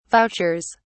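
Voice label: "vouchers", British English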